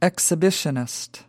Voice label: "exhibitionist", us

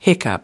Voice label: "hiccup", us

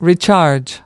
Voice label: "recharge", us

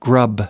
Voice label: "grub", us

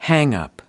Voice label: "hang up", us

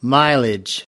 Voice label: "mileage", us

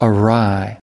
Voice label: "awry", us

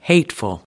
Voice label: "hateful", us

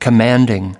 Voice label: "commanding", us

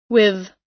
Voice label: "with", us

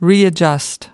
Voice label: "readjust", us

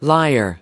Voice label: "liar", us

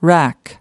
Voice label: "rack", us

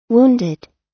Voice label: "wounded", us

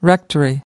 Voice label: "rectory", us

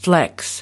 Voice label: "flex", us

